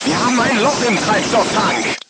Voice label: voice message fuel tank damaged, crew tanks german